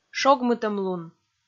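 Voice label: "шогмытӧмлун", Komi, female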